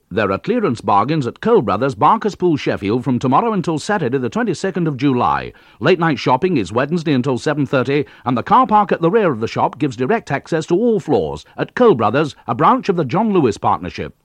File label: Radio Advert, Clearance Bargains; Radio Hallam; Cole Brothers